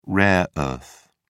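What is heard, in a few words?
"rare earth", British English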